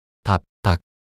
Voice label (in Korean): "답닭" 낱소리 남자